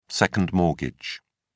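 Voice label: "second mortgage", British English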